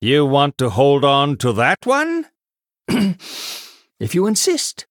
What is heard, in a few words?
Male Human After Freezing